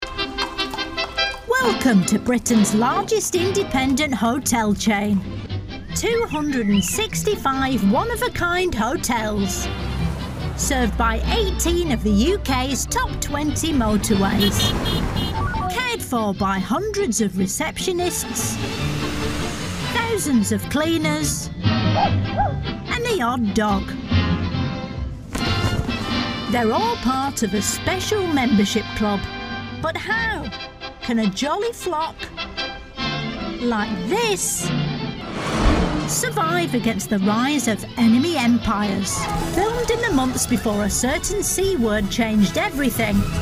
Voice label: narrative; A Very British Hotel Chain